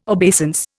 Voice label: "obeisance", Indian

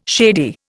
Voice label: "shady", Indian

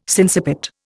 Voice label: "sinciput", Indian

